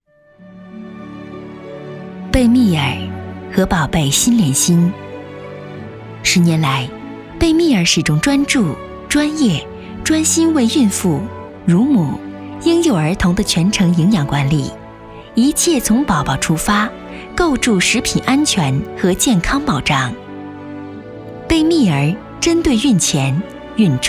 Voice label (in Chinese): 女 专题 企业 温柔